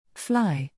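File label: "fly", English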